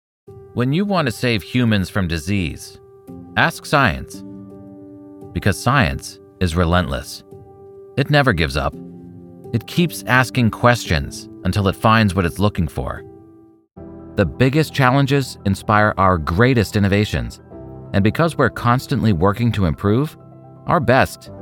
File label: Corporate Narration